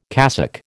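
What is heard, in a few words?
"cassock", American English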